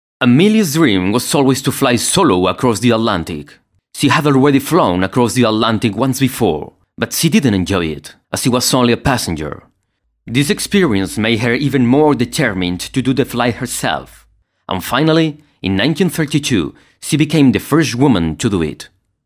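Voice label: European Spanish accented English; GoLocalise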